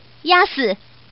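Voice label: Woman, "beat you"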